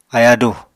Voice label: "ayádù", Apatani